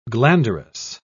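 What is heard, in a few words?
"glanderous", English, British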